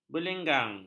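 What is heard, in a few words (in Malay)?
"berlenggang", Malay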